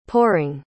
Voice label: "pouring", pronounce